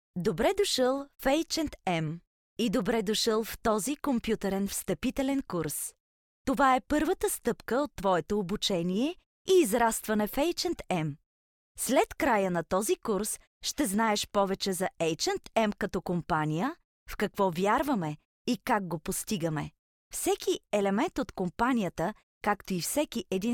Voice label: bulgarian f e-learning; voice demos